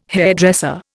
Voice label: "hairdresser", Indian